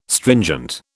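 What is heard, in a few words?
"stringent", British English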